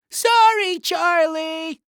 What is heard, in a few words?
Cartoon Voice; AdobeCC; Sorry Charlie